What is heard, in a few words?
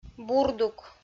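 "бурдук", Komi, female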